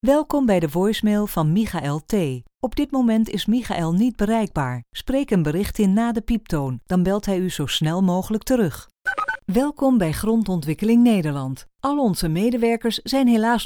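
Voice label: Dutch female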